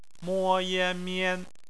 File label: "mian", Chinese; phonetics